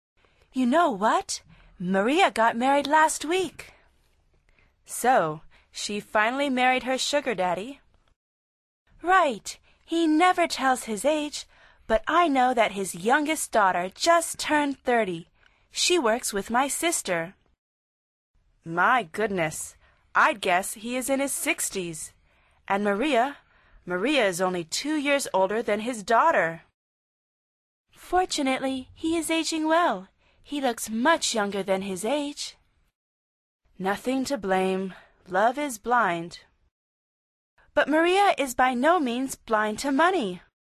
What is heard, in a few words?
dialog